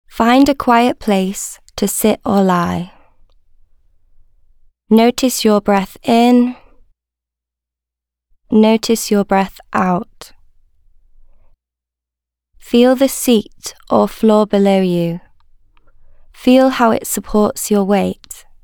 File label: calm down and take a minute female voice